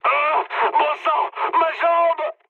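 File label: damaged pilot, mood high, French; War Thunder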